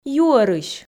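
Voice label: "юӧрысь", Komi, female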